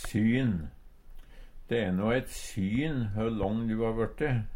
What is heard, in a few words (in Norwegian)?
syn, Numedalsmål; Substantiv inkjekjønn